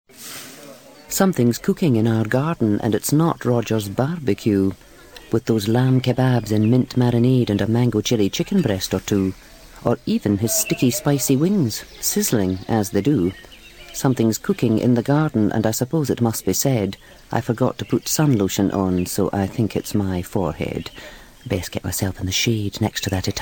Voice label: Narrative; M&S

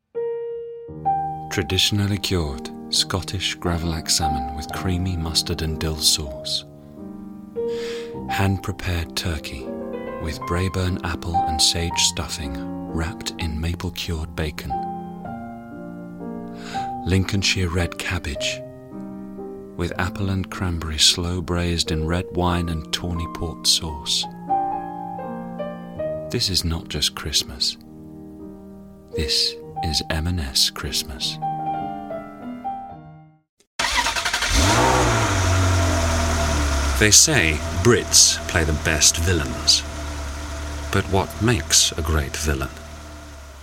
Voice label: Commercials

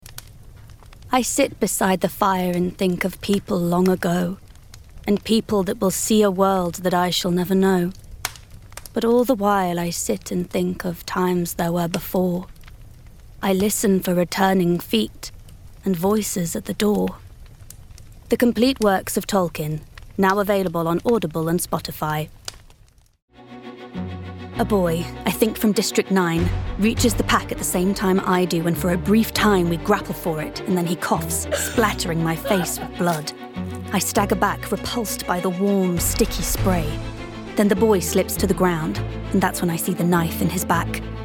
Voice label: audiobook reel; Natural Accents: Derby, Nottinghamshire

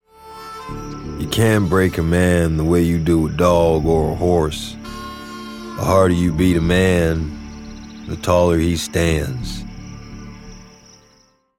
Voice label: animation western

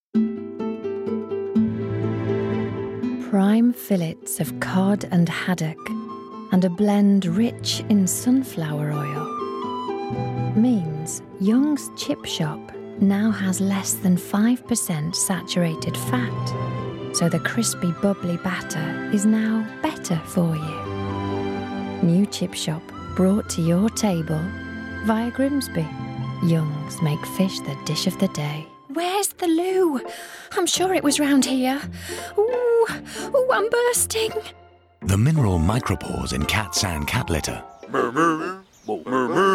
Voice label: Commercials

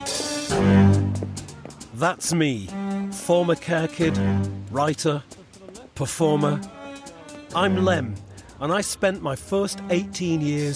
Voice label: Documentary

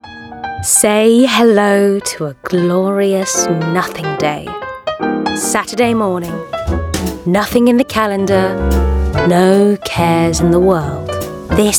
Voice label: commercial voicereel; Natural Accent: RP

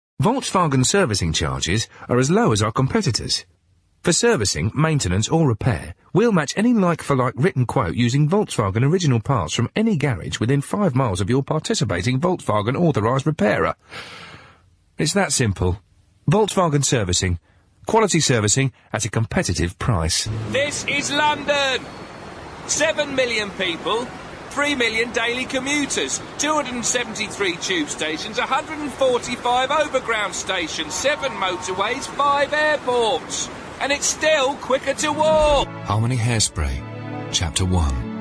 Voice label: Commercial

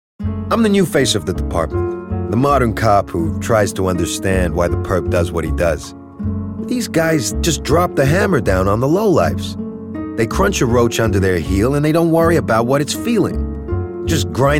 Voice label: Animation Police Deputy

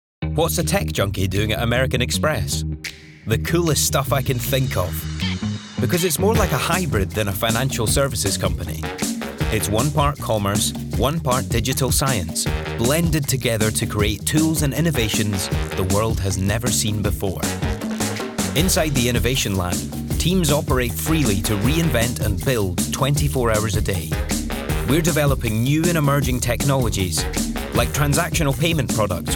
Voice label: Promo

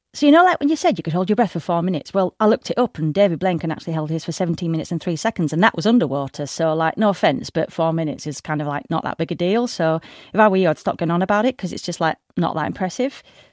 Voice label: Character

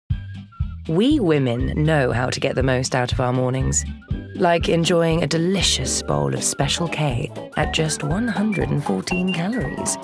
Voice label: commercial; Special K

